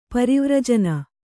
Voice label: "ಪರಿವರ್ಜನ", kannada

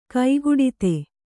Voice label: "ಕೈಗೊಡತಿ", Kannada

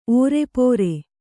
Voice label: "ಓರೆಪೋರೆ", Kannada

